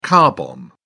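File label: "car bomb", English, British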